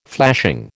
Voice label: "flashing", American